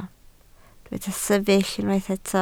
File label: "sefyll", Welsh